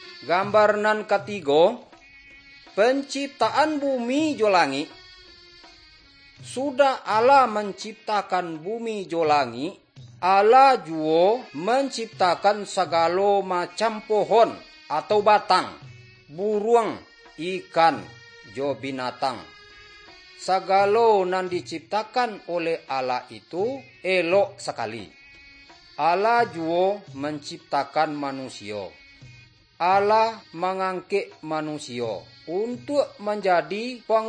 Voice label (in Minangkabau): good news minangkabau